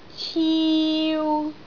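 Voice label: "ciu3", Cantonese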